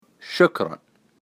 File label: Thank you Arabic; Thank you: Shokran